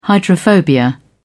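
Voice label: "hydrophobia", British English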